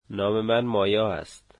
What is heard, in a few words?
my name is Maya, dari